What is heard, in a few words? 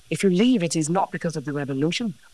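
voice acting, es, female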